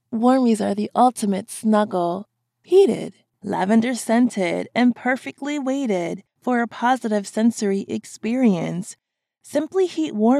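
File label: COMMERCIAL; Voice over; WARMIES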